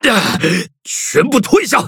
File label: defeat, zh-hant; Cookie Run